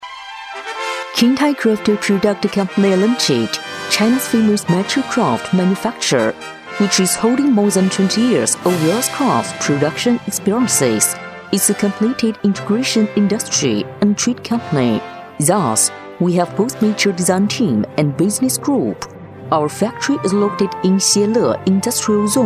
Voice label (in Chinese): 女 英文 成熟大气